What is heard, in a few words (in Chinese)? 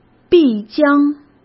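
"必将" Mandarin Chinese; chinese-flashcards; hsk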